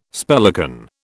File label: "spellican", British